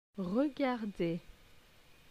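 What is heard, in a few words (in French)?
"Regarder", French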